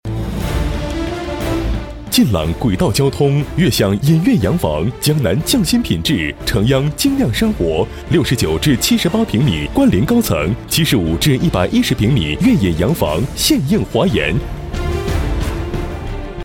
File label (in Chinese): 广告 房地产